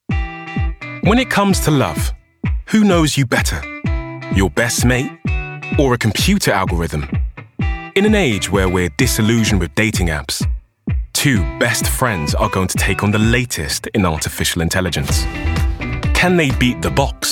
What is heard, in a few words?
Documentary